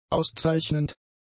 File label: "auszeichnend", German; adjectives